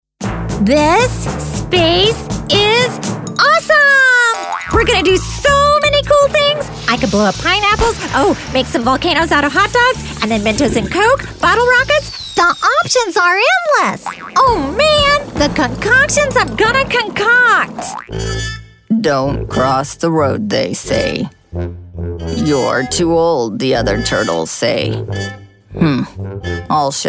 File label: Character